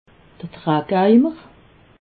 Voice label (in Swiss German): "drackeimer", alsace